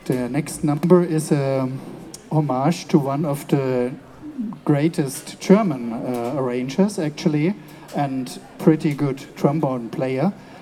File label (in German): Ansage